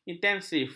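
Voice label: "intensif", Malay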